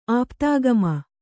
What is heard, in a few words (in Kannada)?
"ಆಪ್ತಾಗಮ", kannada